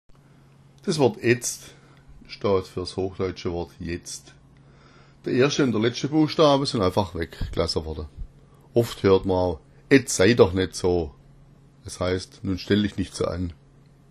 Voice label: etz, schwaebisch

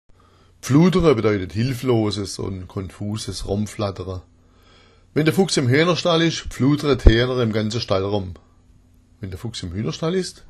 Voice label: pfludera, schwaebisch

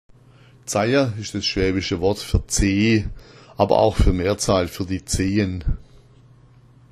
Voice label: zaia, schwaebisch

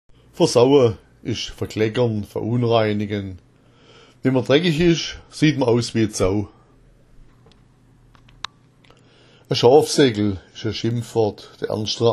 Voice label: versaua, schwaebisch

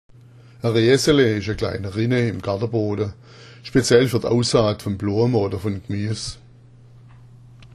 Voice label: reasele, schwaebisch

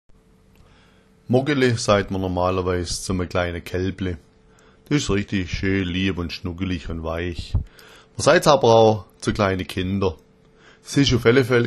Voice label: moggele, schwaebisch

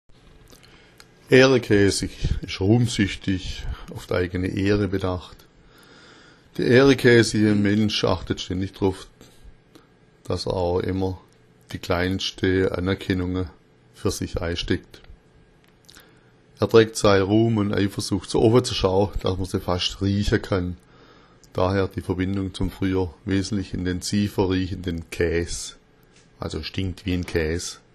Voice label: ehreakaesich, schwaebisch

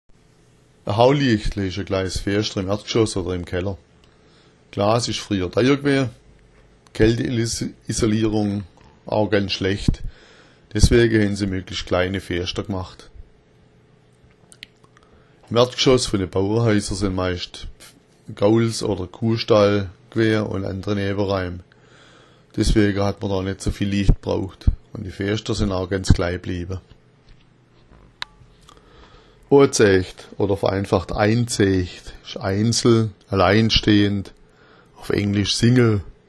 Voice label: hauliacht, schwaebisch

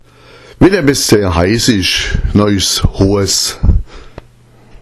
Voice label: hoas, schwaebisch

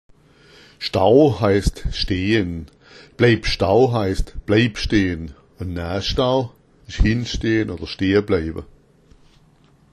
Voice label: stao, schwaebisch

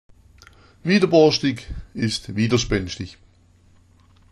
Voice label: widerborstig, schwaebisch

